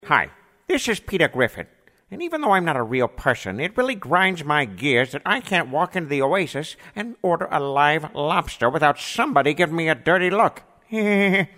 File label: Character; Peter Griffin